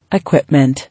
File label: "Equipment", English